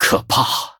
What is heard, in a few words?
Male BloodElf Oops; zh